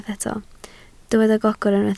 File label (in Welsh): "gogr", Welsh